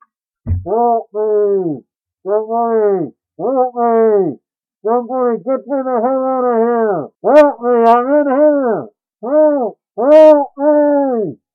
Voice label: Muffled Help Me

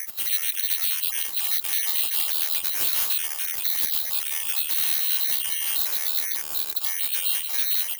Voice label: Unintelligible Radio Chatter Loop

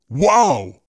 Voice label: "Wow" Deep Voice

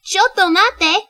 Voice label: "Wait", Japanese

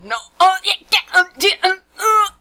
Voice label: No And Grunts

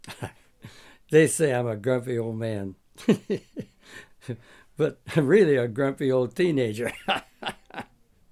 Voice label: Grumpy Old Man

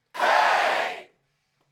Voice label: Big Hey

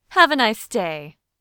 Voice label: Have A Nice Day Female Professional

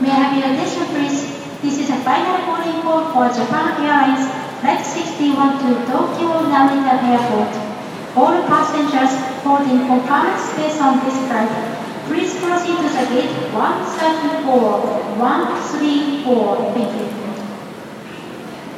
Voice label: Call From Japan Airlines In Lax Airport

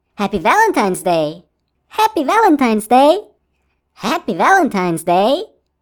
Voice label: Cute Happy Valentines Day Voice

